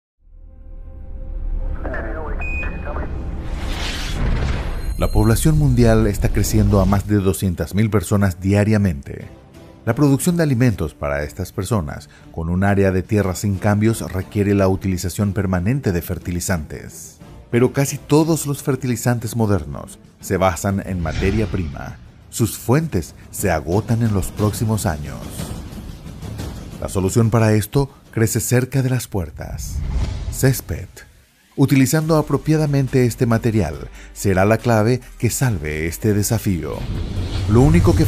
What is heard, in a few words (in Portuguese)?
locutor nativo